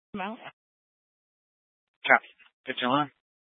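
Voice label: Sheriff Dispatch, Scanner audio recording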